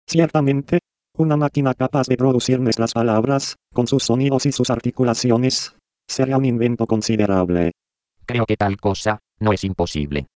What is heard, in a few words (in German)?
sprachsynthese spanisch